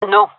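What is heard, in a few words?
negative, gendarmerie female; vo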